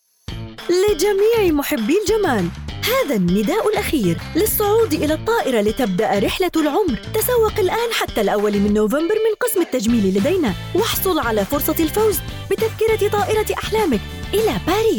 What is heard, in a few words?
Generic demo MSA Saudi